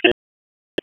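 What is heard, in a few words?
Wake Forest Police Dispatch, p25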